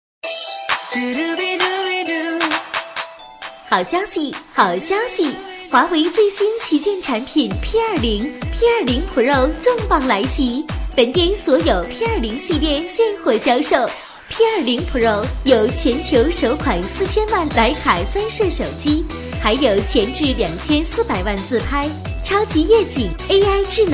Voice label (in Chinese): 女 促销; 华为